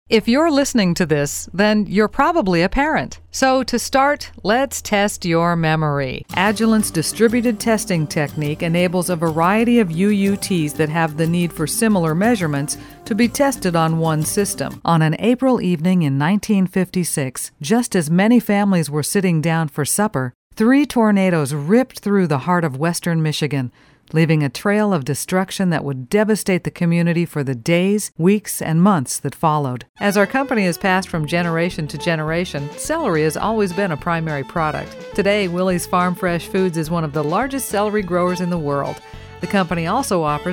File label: narration